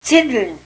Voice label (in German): "zindln", erzgebirgisch